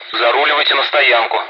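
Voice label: Speech Sound Russian Common air traffic control Messages; ATC; taxi to parking area; DCS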